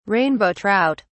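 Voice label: "rainbow trout", British English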